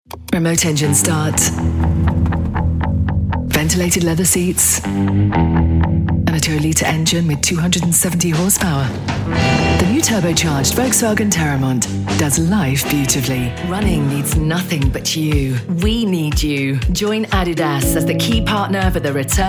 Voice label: radio commercial reel